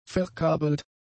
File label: "verkabelt", German; adjectives